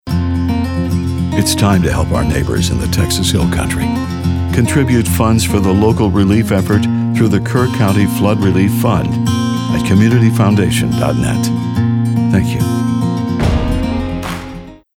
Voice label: radio; Relief Fund; KERR COUNTY FLOOD RELIEF; hill country flooding how can you help